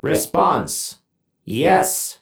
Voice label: Emote Yes; Koga; VGS; paladins